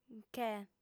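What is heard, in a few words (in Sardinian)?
"che", female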